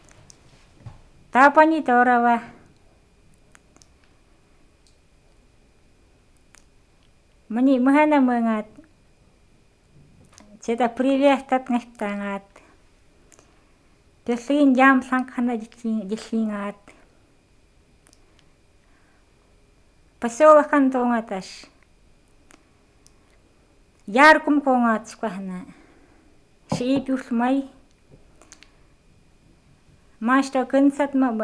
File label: A voice letter in Forest Nenets